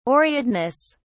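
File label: "aureateness", British English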